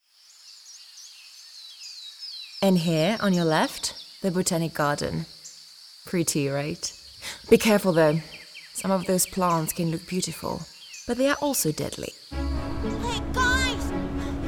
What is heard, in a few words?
Animation Video Game English